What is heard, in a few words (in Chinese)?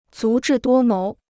"足智多谋", Chinese